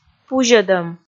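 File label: "пузьӧдӧм", Komi, female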